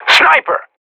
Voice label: radio bot under fire sniper; Counter Strike Model Pack; CS:GO